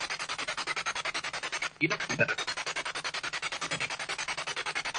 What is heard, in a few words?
Party Room Spirit Box; the brewerie at union station investigation